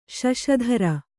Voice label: "ಶಶಿಧರ", Kannada

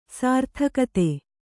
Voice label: "ಸಾರ್ಥಕತೆ", Kannada

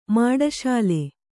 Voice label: "ಮಾಡಶಾಲೆ", Kannada

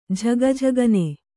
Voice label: "ಝಗಝಗನೆ", kannada

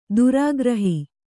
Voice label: "ಧಾರಾಗೃಹ", Kannada

